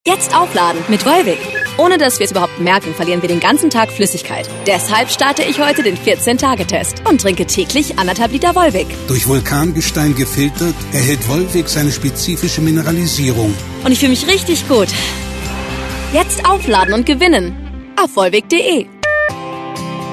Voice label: germany voice over female commercial